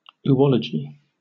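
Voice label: "oology", British English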